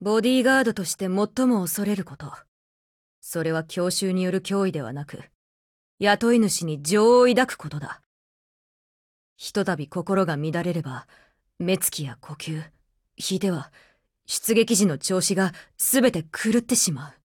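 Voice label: dialogue wedding, Japanese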